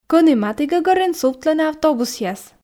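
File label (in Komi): кӧні матігӧгӧрын сувтлӧны автобусъяс, Komi, female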